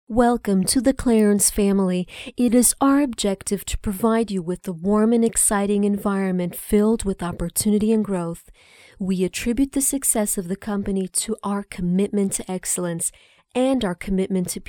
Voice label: female portuguese voice over english, portuguese portugal